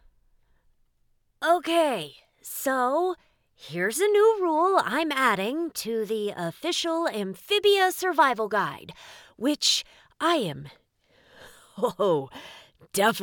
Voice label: Smart judgy out of breath